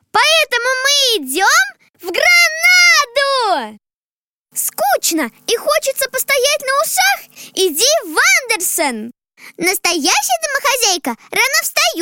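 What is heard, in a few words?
detskiy golos, child's voice; dictor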